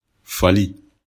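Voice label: "fali", Bambara; animaux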